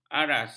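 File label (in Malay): "aras", Malay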